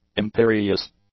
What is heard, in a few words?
"imperious", English; adjectives